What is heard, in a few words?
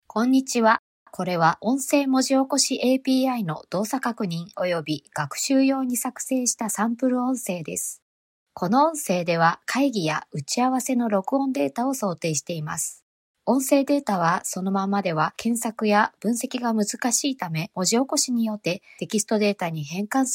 ai engine voice